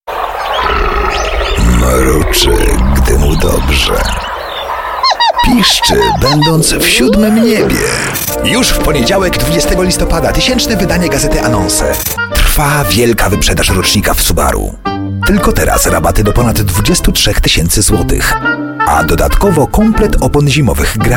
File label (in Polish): Lektor, Studio; Studio Nonagram